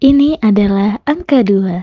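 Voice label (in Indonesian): "Dua", Indonesian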